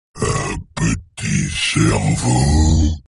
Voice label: Ghoul Pissed; Warcraft 3